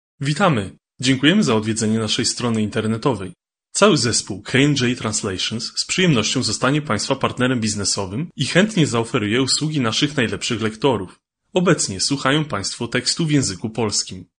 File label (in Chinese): 波兰语男, 外语, 磁性; 小语种